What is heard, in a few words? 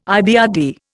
"IBRD", Indian